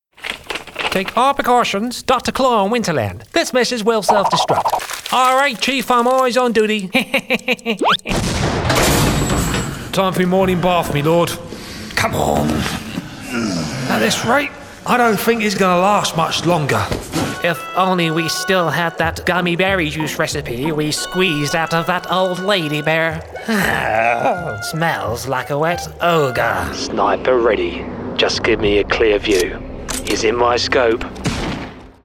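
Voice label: British English, male, animation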